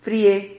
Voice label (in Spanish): "frie", Silabas